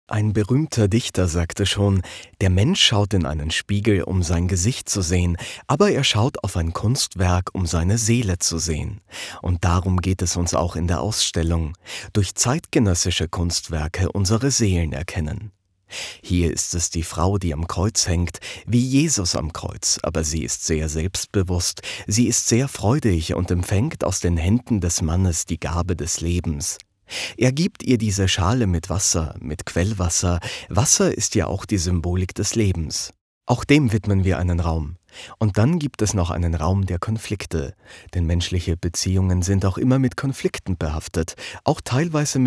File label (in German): Sprechprobe VOICEOVER KULTUR